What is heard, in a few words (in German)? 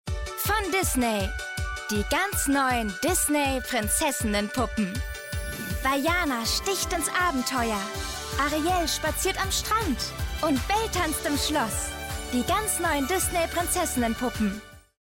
Prinzessinnenpuppen Werbung; Disney